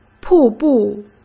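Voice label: "瀑布", Mandarin Chinese; chinese flashcards; hsk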